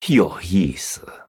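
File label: Male, Chinese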